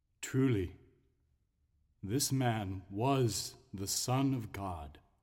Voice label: Monologue; Centurion; living last words